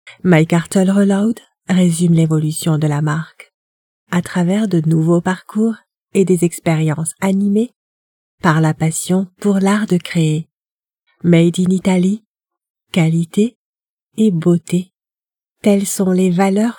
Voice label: corporate